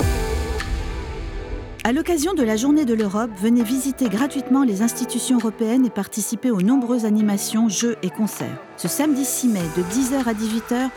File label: Spot Radio; OpenDays